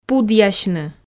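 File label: "пудъясьны", Komi, female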